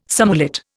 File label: "surmullet", Indian